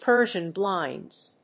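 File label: "Persian blinds", English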